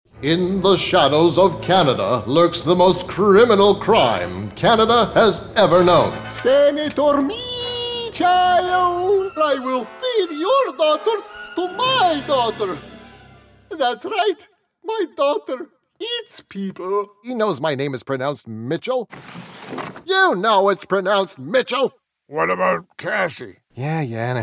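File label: Character male; TaDa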